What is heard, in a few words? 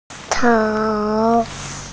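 "cao3", Mandarin Chinese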